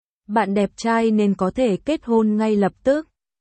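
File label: Vietnamese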